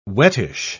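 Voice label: "wettish", British English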